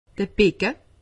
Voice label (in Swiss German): "becke", alsace; medecine